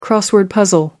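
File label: "crossword puzzle", British English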